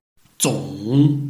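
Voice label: "zong3", Mandarin Chinese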